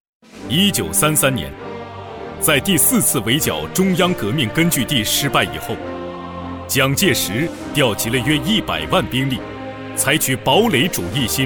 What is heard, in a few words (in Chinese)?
男 大气人文 历史类